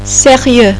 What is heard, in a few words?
"serious", "serieux", French; Personality